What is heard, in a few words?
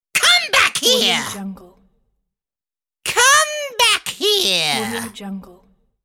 witch saying come back here